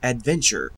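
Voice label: "adventure", Canadian English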